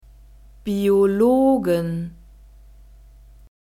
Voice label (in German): "Biologen", German